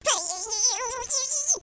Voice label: angry, speech, Alien; Worms3D